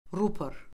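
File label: "rupor", Azerbaijani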